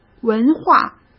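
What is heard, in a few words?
"文化", Mandarin Chinese; hsk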